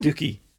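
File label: "dooky", Cromarty Fishertoun dialect; [DOO-key]; tidy; Word; fishertoun dictionary; cromartymuseum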